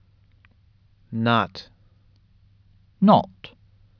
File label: "NOT", USUK Pronunciation